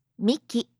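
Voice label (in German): "Miki", japanische Mädchennamen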